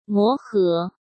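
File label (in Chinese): "磨合", Mandarin Chinese; hsk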